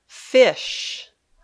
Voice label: "fish", English